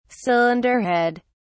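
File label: "cylinder head", British English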